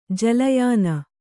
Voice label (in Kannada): "ಜಲಯಾನ", Kannada